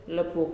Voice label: Big, Nalik